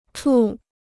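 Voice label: "处", Chinese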